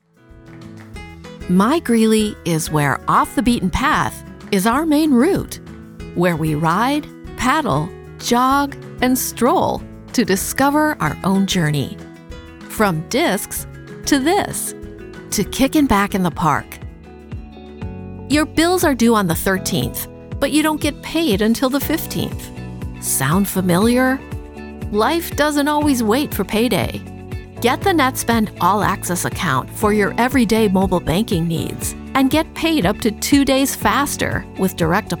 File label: Conversational Authentic